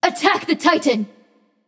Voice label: vox attack m; smite; nuwa; voicepack